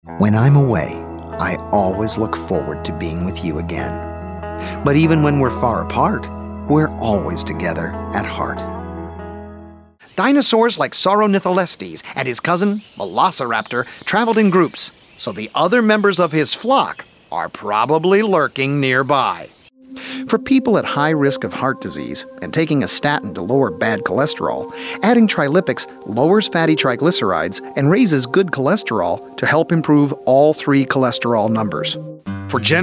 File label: Industrial VO